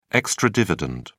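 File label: "extra dividend", British English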